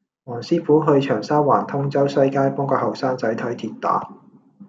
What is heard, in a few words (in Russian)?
Голоса, Гонконгский